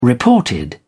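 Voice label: "reported", British English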